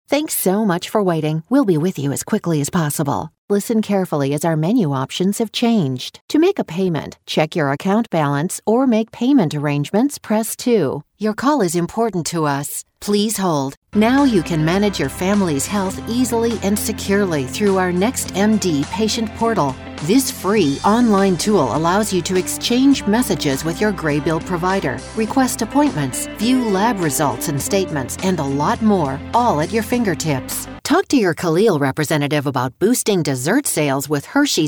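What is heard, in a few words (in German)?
English US Variabel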